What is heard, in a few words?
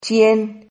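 "chien", Spanish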